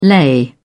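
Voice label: "lei", British English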